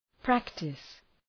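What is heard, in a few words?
"practise", English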